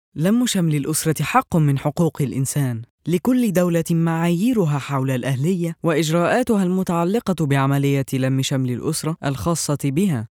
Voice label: Narrative